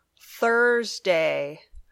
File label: "Thursday", English